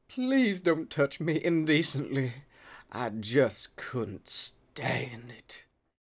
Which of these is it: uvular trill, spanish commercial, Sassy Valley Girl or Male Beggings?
Male Beggings